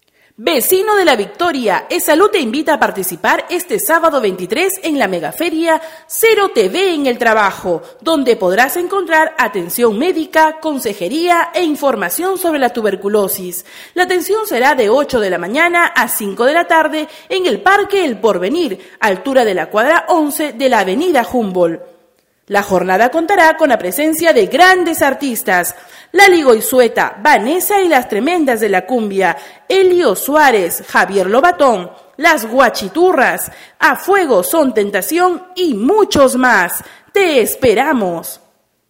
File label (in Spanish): Perifoneo; CERO TB; tb en el trabajo